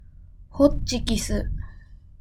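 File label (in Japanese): "ホッチキス", Japanese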